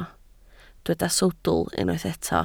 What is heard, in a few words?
"sawdl", Welsh